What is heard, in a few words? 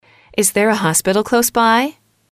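"is there a hospital close by", English, female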